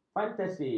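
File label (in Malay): "fantasi", Malay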